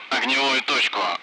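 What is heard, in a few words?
Speech, Russian; Allied Flight; bunker; DCS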